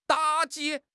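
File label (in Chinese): "打击", Chinese; HSK6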